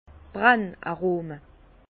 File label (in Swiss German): "brenn arome", Bas Rhin; vin; arômes de torréfaction